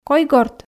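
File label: "койгорт", Komi, female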